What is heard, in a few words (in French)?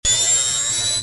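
"OUI", French; MOBICLIC128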